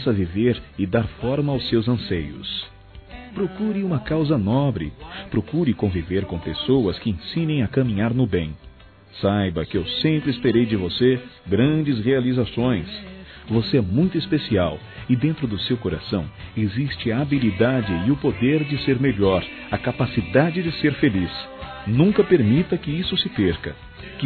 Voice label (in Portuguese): ANIV. ADOLESCENTE M